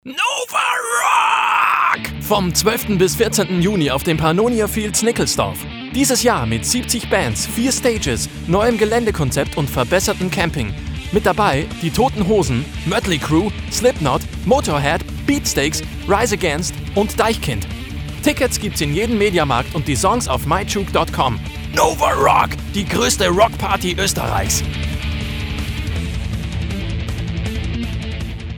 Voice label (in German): Werbung; Nova Rock